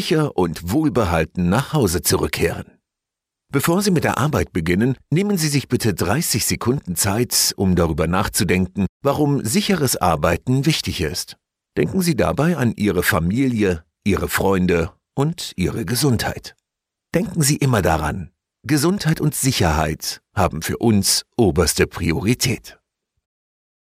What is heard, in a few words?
German voice commercial cement; breedon cement